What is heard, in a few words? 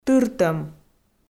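"тыртӧм", Komi, female; audio dict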